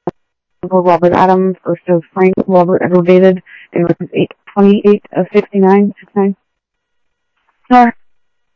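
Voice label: Gilbert 2 Information, scanner audio; Mesa Simulcast; MESA OPEN ALL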